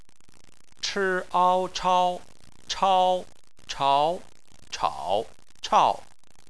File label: "chao", Chinese